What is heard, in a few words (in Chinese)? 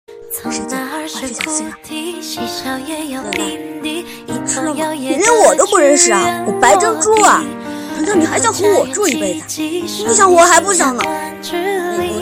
小男孩混剪